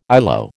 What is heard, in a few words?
"ILO", American